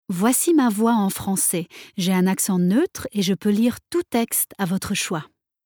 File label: no informative french